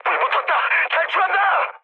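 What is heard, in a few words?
Korean on fire mood med; War Thunder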